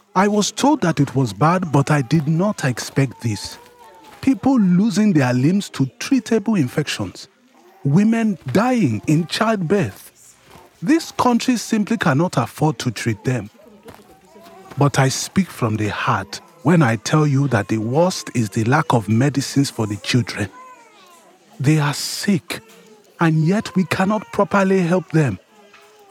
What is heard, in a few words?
African doctor character